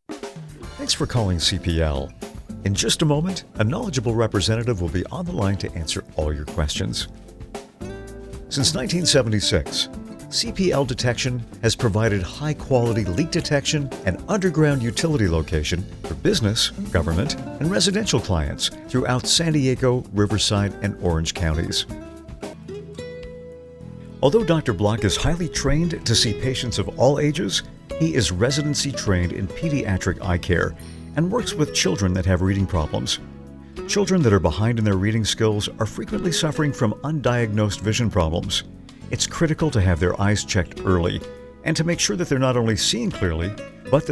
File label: on hold message